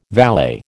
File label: "valet", American